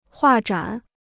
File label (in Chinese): "画展", Chinese